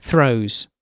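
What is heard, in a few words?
"throws", British English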